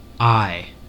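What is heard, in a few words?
"eye", US English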